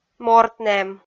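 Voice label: "морт нэм" Komi, female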